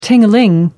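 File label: "ding a ling", British English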